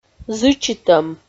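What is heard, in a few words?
"зычитӧм", Komi, female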